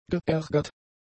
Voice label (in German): "geärgert", German; adjectives